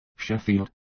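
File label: "Sheffield", English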